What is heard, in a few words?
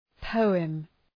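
"poem", English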